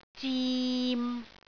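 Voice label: "zim6", Cantonese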